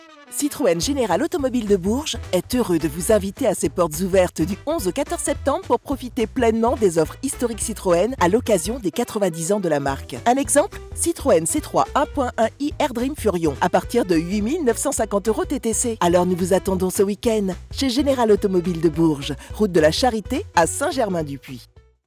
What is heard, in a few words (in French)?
pub radio locale; spots radio voix off; citroen